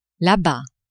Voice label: "la-bas", French; adverbs